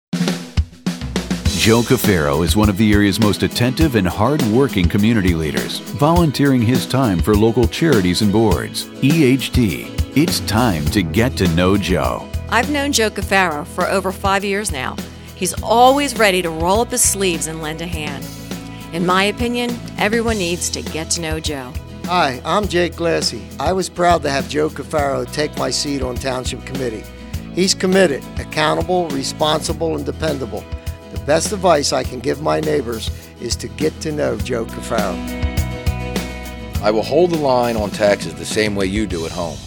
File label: radio commercial